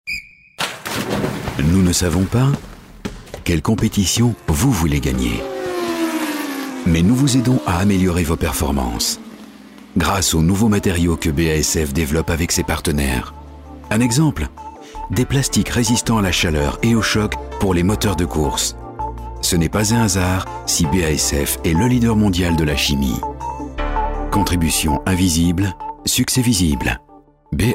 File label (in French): Voix M